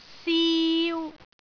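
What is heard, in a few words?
"siu3", Cantonese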